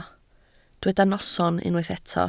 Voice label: "noson", Welsh

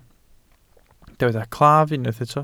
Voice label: "claf", welsh

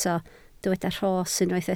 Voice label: "rhos", Welsh

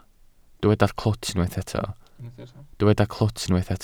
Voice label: "clwt", Welsh

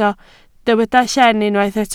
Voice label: "lleen", Welsh

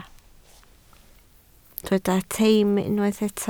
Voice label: "teim", Welsh

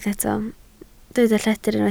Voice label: "lledr", Welsh

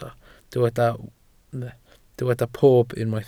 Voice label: "pob", Welsh

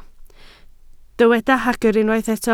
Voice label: "hagr", Welsh

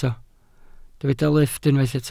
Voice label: "lifft", Welsh

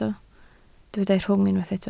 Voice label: "rhwng", Welsh

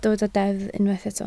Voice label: "deddf", Welsh

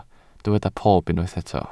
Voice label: "pob", Welsh

